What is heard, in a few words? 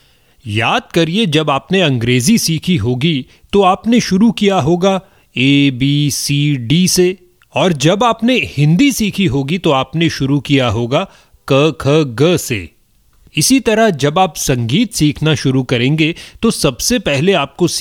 Hindi Explainer; Alankar